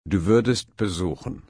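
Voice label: "besuchen", German, slow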